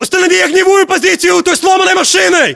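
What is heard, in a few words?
order cover car wrecked, battlechatter, Russian; localized english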